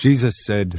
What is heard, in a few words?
jesus said, british bloke